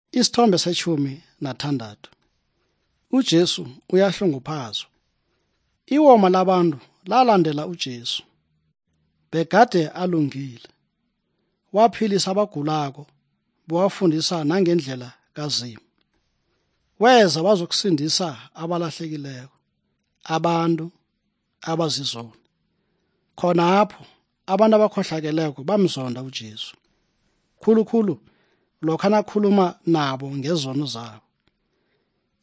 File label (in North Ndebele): good news ndebele southern, drama